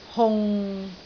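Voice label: "hung6", Cantonese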